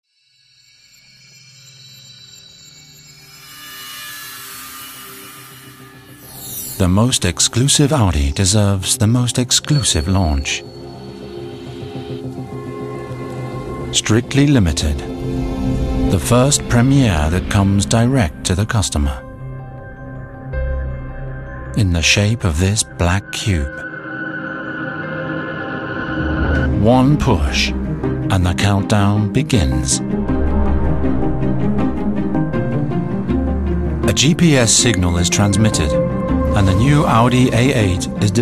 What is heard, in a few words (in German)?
Werbung kraftvoll